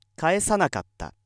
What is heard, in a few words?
male